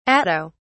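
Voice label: "atto", English, British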